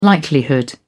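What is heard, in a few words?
"likelihood", British English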